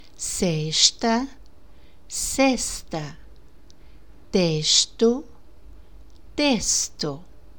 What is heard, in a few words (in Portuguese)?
som carioca